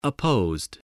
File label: "opposed", voice, spelling